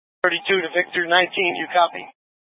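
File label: Incident Tac 3, Scanner audio recording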